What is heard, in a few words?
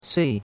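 "sui4", Mandarin Chinese; Cours-de-Chinois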